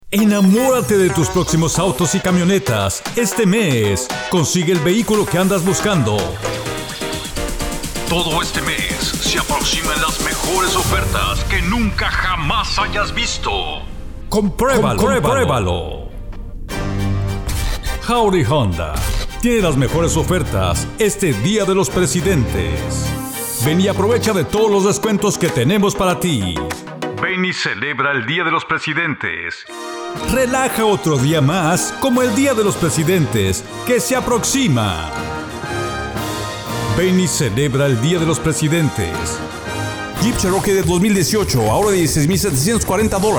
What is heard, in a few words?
Spanish